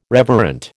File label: "reverent", American